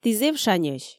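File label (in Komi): ті зэв шаньӧсь, Komi, female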